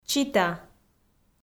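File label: "Чита", Komi, female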